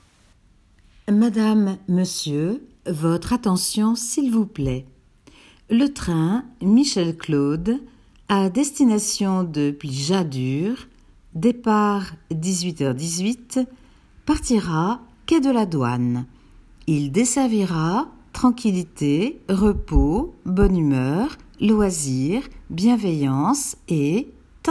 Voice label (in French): annonce sncf